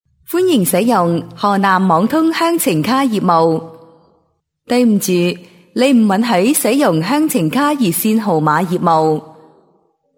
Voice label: Chinese Cantonese Professional female, Foreign Voice Talent; Cantonese - Female #2